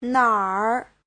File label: "nǎr", Chinese